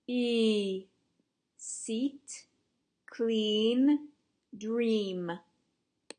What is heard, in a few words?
long ee